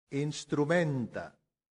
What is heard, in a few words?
"instrumenta", Latin; santillana